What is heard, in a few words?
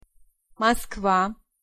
"Moscow", Pronunciation